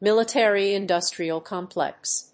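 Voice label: "military-industrial complex", English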